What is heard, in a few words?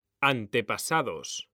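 "antepasados", Spanish, male; mekanta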